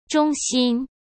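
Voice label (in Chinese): "忠心" Mandarin Chinese; chinese-flashcards; hsk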